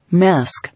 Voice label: "Mask", English